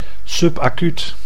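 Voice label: "subacuut", Dutch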